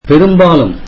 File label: tamil almost